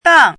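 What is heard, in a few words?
"dàng", Mandarin Chinese; 挡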